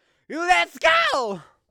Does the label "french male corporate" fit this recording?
No